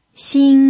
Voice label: "xin1", pinyin